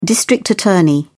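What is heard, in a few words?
"district attorney", British English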